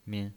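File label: "min", pinyin voice, Mandarin Chinese, neutral tone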